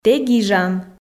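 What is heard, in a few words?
"тэ гижан", Komi, female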